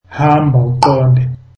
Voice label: zulu Go straight